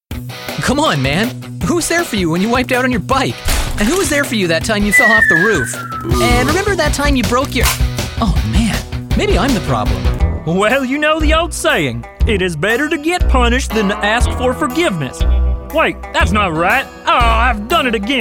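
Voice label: Canadian English, Animation; GoLocalise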